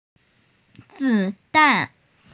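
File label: "bullet", female; zi3dan4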